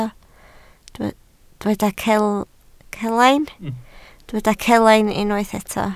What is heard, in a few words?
"celain", Welsh